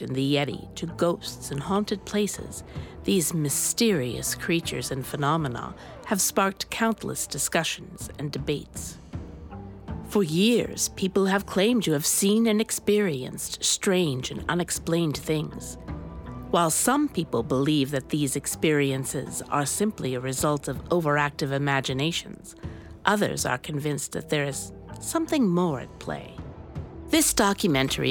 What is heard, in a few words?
VO Paranormal Doc